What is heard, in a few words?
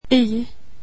swedish alphabet y